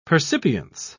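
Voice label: "percipience", British English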